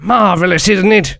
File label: Civilian cheers